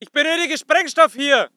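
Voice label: voice german infantry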